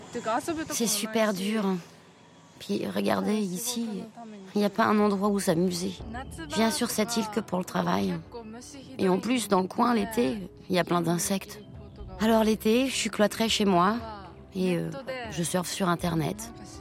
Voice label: voice over; de mer en fille

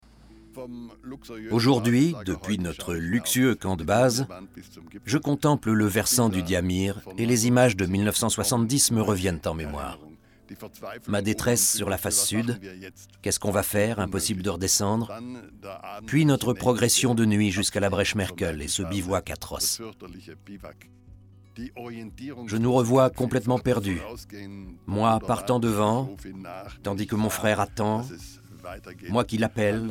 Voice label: VOICE OVER; NANGA PARBAT

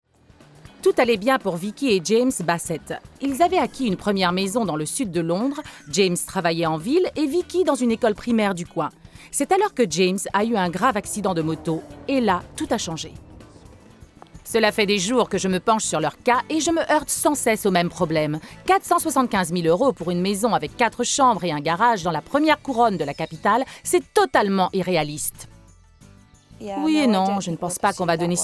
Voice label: voice over; RELOCATION

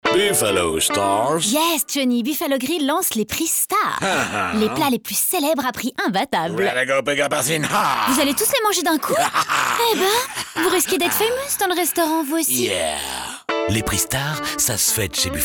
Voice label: RADIO; BUFFALO; PRIX STARS

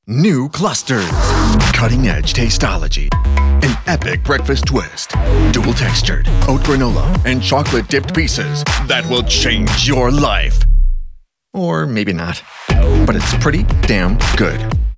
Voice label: ad; clusters

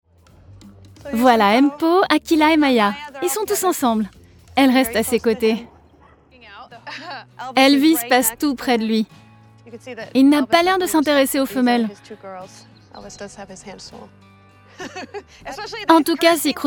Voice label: Voice over; Zoo SanDiego

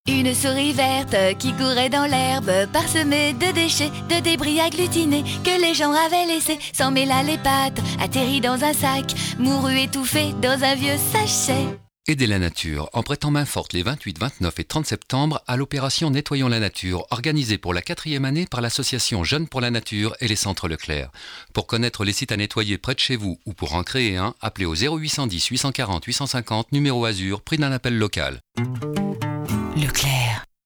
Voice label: Promo; Leclerc

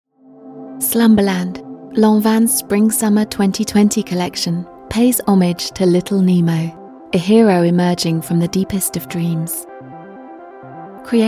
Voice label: F ANG Contemplative Storytelling; Lanvin Showroom

